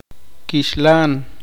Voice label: "pollo", Poqomchi

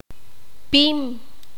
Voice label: "grueso de objetos planos", Q'anjob'al

